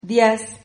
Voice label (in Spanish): "dias", Silabas